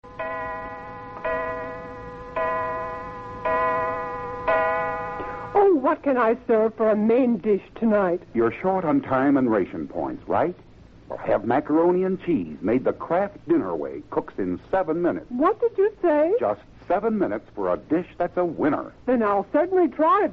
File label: commercials; kraft dinners save ration points